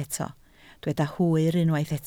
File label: "hwyr", Welsh